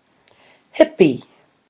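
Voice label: "hipi", Maori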